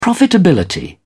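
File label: "profitability", British English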